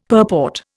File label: "purport", Indian; Dictionary